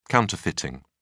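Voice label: "counterfeiting", British English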